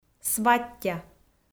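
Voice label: "сваття", Komi, female; kpv audio dict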